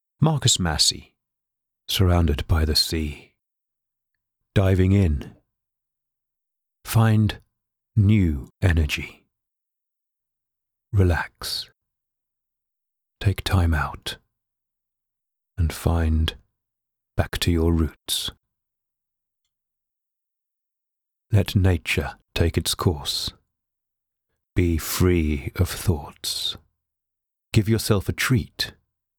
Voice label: Meditation App Speaker